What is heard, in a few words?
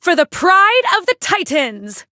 Voice variable chant; flammelapis